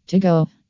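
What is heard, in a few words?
"to go", female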